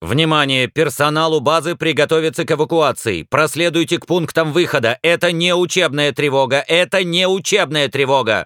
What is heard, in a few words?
announcer